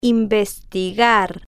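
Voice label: "investigar" Spanish, female, slow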